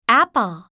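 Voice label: "apple", English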